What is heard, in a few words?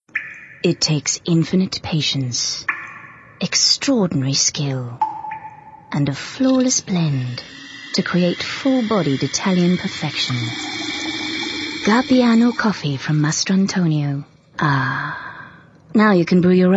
English Soft Sell